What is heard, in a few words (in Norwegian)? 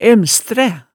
"æmstræ", hemsedalsmaalet tale